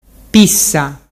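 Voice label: "pissa", Ligurian dialect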